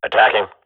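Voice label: radio bot response attacking, swat; player vo; Counter Strike Model Pack; CS:GO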